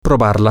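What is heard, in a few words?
to taste try it, spanish, male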